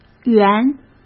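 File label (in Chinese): "员", Mandarin Chinese; chinese-flashcards; hsk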